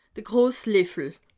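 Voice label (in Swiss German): "grossleffel", Alsatian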